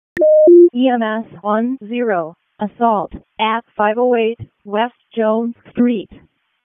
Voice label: WC EMS ALERT, p25; wake

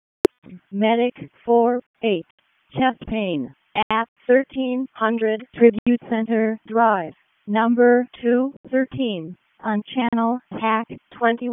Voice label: EMS ALERT; wake

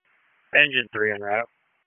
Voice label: PS TAC, p25; wake

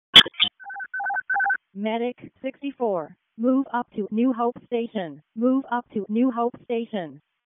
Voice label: EMS ALERT, p25; wake

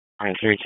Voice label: Wake Forest Police Disp, P25 recordings

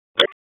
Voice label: Police Northwest District Tac 1; Raleigh; p25

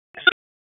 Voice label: Raleigh Police LE Ops, p25; LE Ops 7; wake p25

